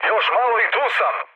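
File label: Serbian near waypoint mood high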